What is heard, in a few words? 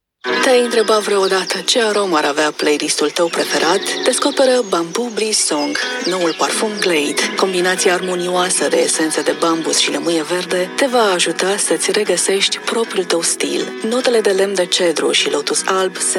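Romanian, commercial; GoLocalise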